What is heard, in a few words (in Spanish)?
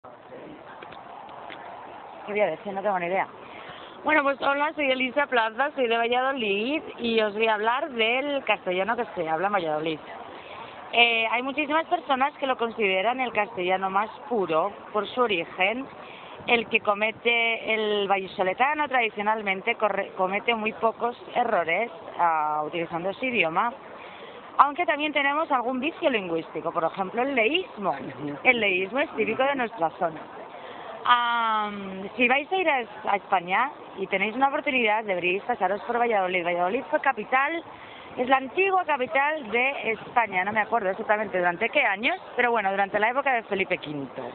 Spain Vayadolid Fem